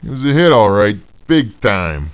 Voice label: male neutral talk; kingpin loc